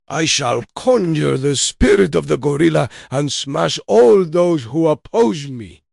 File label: upset; balam